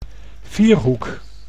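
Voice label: "vierhoek", Dutch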